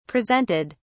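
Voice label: "presented", British English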